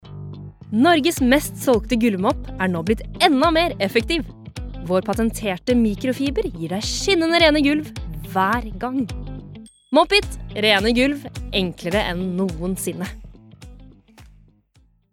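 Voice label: Norwegian advert, norwegian female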